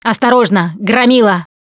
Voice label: heard charger, Left 4 Dead 2 russian, Survivor Voice Producer